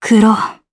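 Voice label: Vox Crow, Japanese; Valance; kingsraid audio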